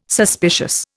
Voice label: "suspicious", Indian